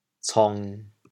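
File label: "cong1", Teochew, chaoyang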